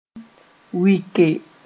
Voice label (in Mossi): "wɩke", moore